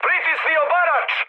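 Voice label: Serbian attack position mood high; speech; War Thunder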